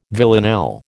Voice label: "villanelle", American

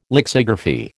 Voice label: "lexigraphy", American

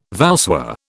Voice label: "voussoir", British

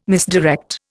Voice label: "misdirect", Indian

